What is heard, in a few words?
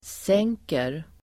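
"sänker", Swedish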